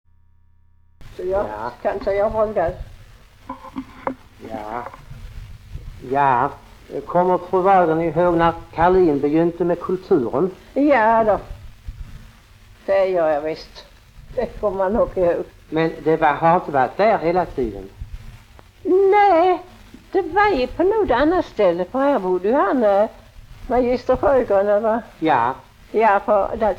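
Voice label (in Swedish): Lunds stad, Swedish dialect; Folkminnen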